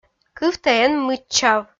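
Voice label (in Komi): "кывтӧ эн мыччав", Komi, female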